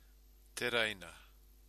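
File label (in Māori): "tereina", Māori word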